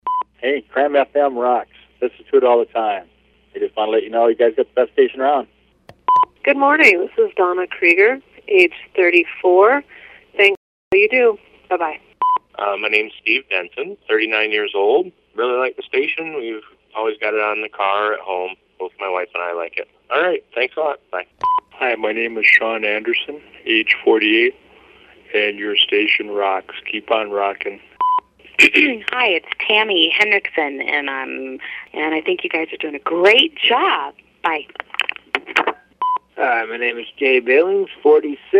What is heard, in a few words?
phone testimonials